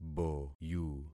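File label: "boyu", concatenated syllables; Affixing experiment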